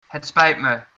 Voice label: sorry, dutch

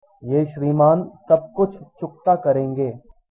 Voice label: pay, hindi

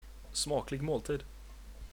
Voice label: bon appetit, swedish

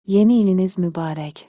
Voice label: new year, Azerbaijani; Yeni İliniz Mübarək; New Year greetings

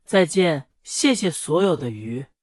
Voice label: "so long", Mandarin; Chinese (Mandarin); 再见，谢谢所有的鱼

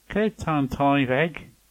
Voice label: "toilet", Manx

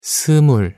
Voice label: 20 seumul, korean numbers

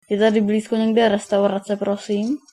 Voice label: restaurant near, czech

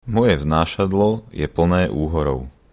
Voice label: hovercraft, slovak

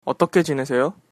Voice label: how are you, Korean

